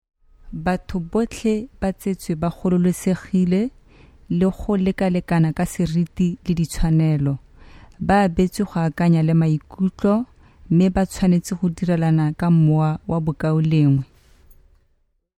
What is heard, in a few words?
Tsewana